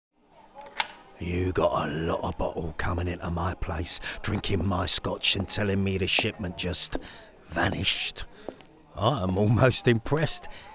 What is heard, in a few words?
uk south voice